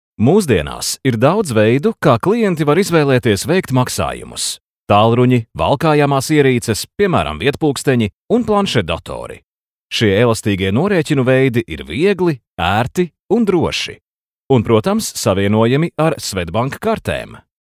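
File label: Latvian, male, elearning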